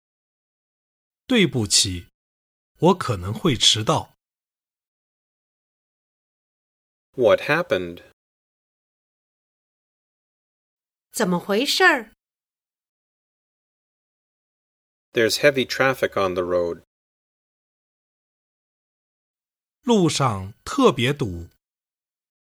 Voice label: Dialog; Chapter 4: How to Apologize